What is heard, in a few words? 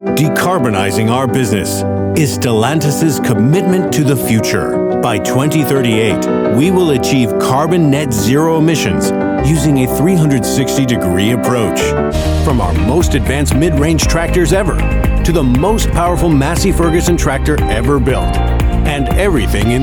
Corporate Voice Over; Full Range Voice Over Demos